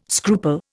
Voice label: "scruple", Indian